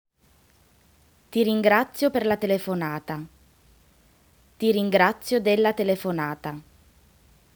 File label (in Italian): ringrazio telefonata; Italian; Thanks